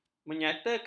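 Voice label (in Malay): "menyatakan", Malay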